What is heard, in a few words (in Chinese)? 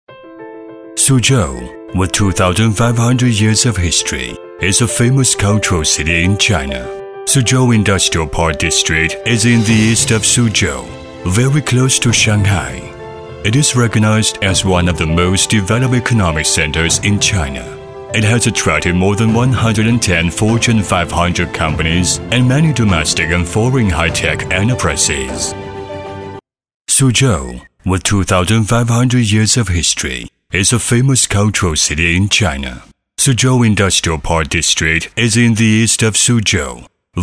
英语男 试音 干音; Suzhou